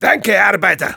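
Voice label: thanks for the teleporter, German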